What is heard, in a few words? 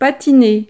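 "patiner", French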